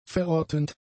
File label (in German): "verrottend", german; adjectives